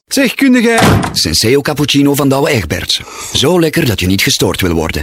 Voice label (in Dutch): Commercial; Senseo